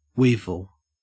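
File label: "weevil" English, Australian; pronunciations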